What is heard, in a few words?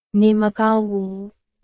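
Burmese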